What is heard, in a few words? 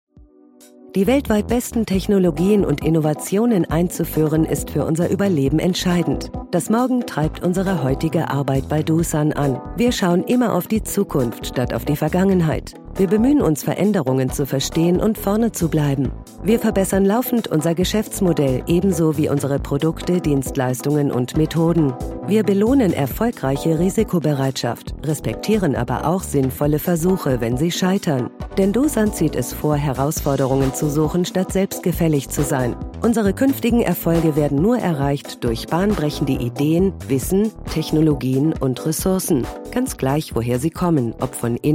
female German